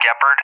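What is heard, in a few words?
"Gepard", English, USA; AirDefence; DCS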